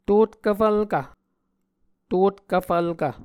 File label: "totkv fvlkv", Muscogee